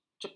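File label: "cekur", Malay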